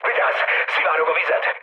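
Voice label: Hungarian damaged water mood med, speech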